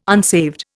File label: "unsaved", Indian